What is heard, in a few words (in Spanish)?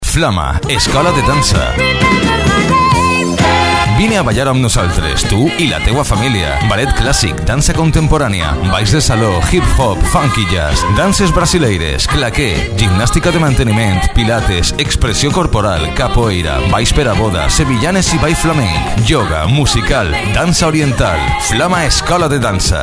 SPOT RADIO